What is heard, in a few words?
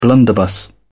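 "blunderbuss", British English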